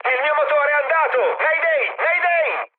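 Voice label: Italian damaged engine mood med, speech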